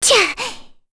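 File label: Vox Landing, Korean; Kirze; kingsraid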